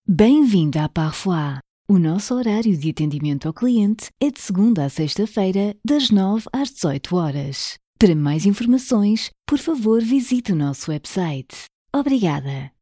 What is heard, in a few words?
portuguese